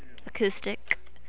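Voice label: "acoustic", USA, female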